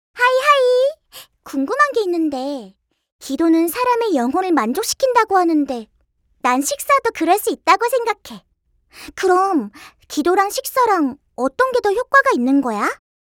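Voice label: voice korean; TruffleAndFilbert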